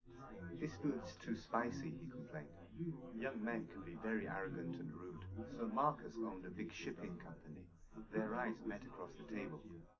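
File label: male, live recording; SiSEC11; underdetermined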